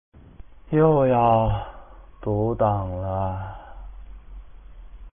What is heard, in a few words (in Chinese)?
死亡, BattleCry